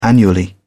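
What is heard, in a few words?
"annually", British English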